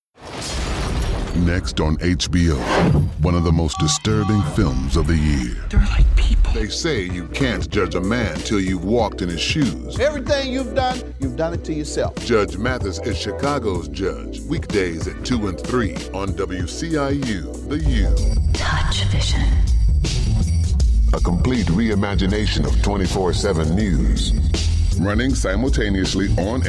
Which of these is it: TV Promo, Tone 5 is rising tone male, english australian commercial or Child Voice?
TV Promo